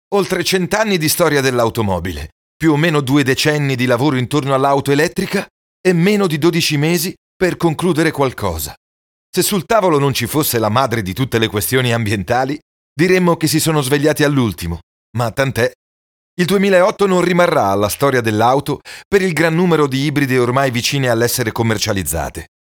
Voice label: ITALIANO DINAMICA

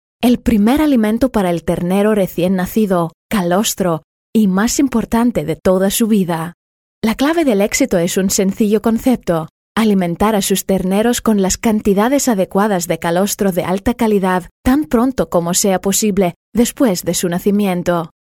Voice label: SPAGNOLO normale

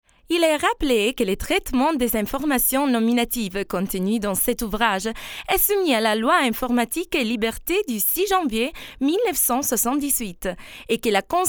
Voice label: francese dinamica

